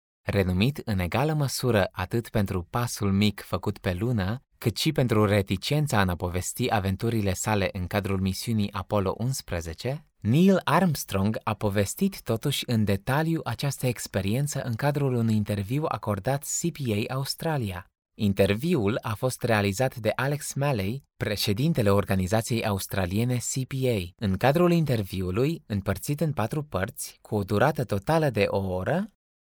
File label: male romanian voice over narrative